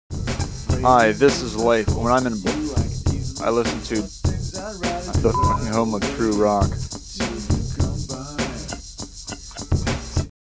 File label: Station Identification